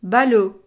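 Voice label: "ballot", French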